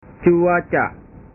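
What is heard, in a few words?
"cuaca", Indonesian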